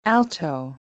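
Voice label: "alto", USA, female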